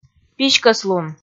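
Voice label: "писькӧслун", Komi, female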